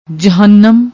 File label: "jahanum", Punjabi